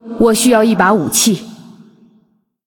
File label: Female Ghost ERROR NEED WEAPON, zh